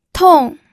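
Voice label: "tong4", Mandarin Chinese, pinyin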